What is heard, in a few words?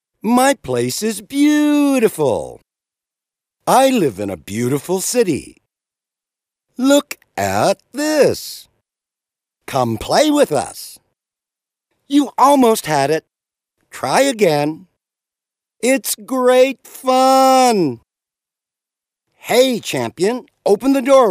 US male children